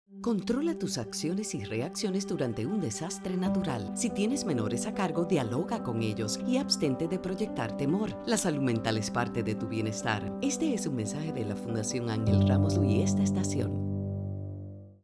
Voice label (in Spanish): PSA Consejos Radio; FAR